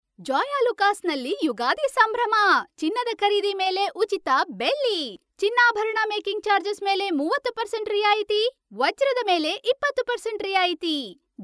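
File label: Kannada India female voice over, sales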